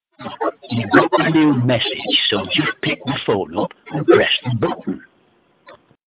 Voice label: Yorkshire Txt Alert